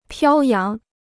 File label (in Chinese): "飘扬", Chinese